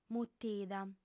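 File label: "mutida" Sardinian, female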